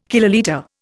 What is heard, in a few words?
"kilolitre", Indian